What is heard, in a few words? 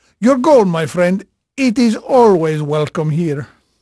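Portuguese male, voice; Pirates Of The Caribbean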